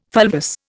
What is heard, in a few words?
"fulvous", Indian